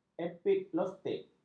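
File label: "epiglotis", Malay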